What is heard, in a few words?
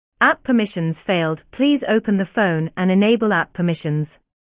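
female British app permissions failed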